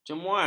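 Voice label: "cemuas", Malay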